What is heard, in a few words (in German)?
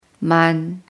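"zehntausend", German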